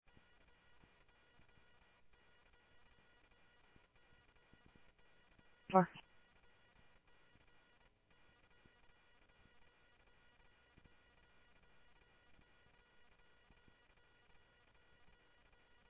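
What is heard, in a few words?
police department, scanner audio trunk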